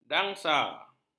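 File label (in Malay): "dansa", Malay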